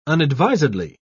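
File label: "unadvisedly", British English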